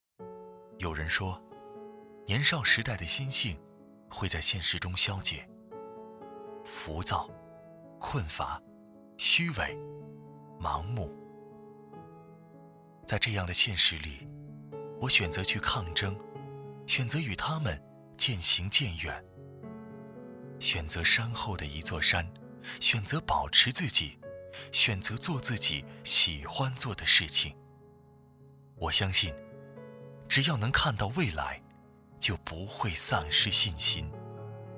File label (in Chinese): 独白, 情感; 云南师大